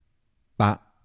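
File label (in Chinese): 伯-bak55-台城-男, 台山话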